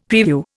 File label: "preview", Indian; Dictionary